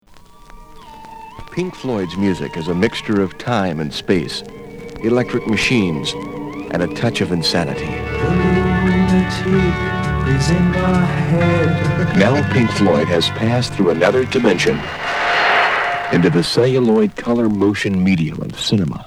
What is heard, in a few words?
Radio Advert